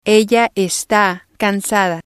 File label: "ella esta cansada", spanish